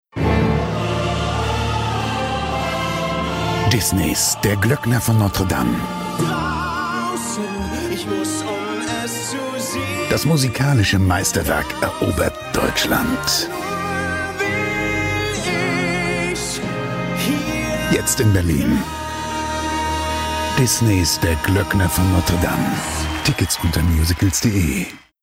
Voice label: male german comercial; ideasonora

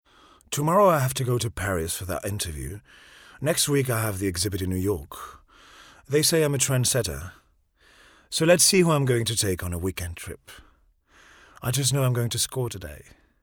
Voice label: non native english testimonial comercial; ideasonora

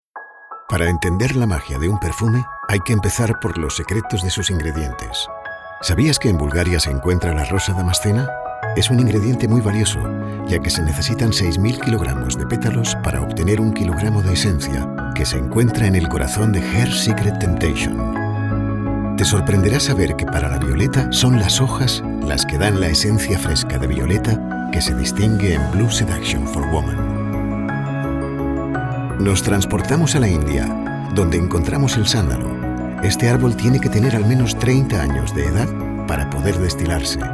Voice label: male spanish comercial; ideasonora

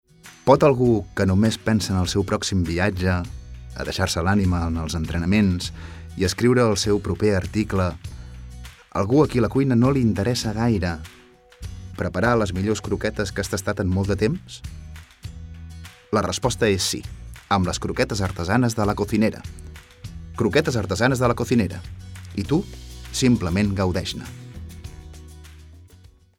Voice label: male catalan food comercial; ideasonora

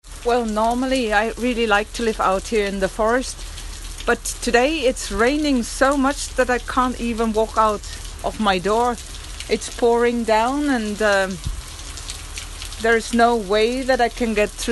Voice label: female non native english story comercial

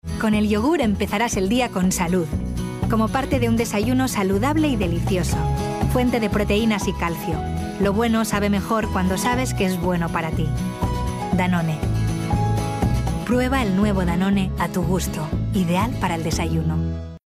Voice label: female spanish food comercial

